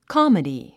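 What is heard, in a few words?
"comedy", English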